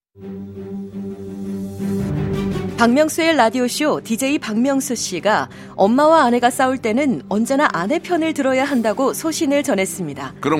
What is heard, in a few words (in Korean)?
스팟 소신발언 똑똑한 30대; 박명수의 라디오쇼